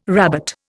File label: "rabbet", Indian